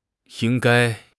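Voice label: "ying1 gai1", Mandarin Chinese; HSK3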